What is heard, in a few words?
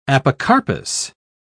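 "apocarpous", British English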